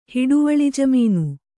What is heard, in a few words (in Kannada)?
"ಹಿಡುವಳಿಜಮೀನು", Kannada